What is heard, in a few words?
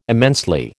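"immensely", American English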